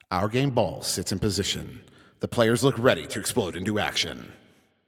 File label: Ball Placed in Start Ring, Announcer